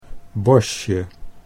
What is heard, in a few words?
"bosje", Dutch